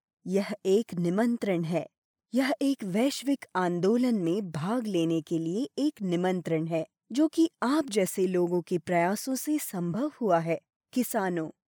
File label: female hindi voice over narrative